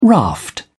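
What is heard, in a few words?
"raft", British English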